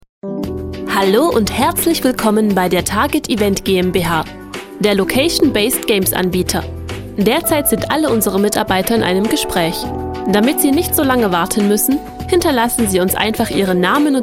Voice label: deutsch